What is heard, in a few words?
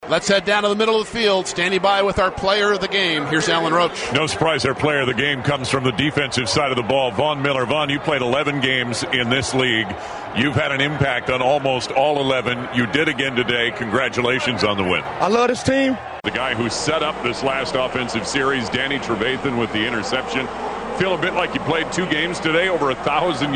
radio postgame interviews